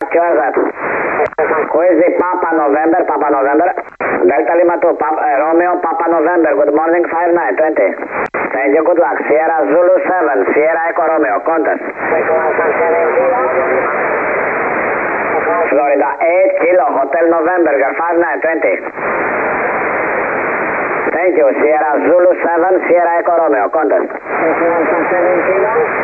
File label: cqwwdx 15m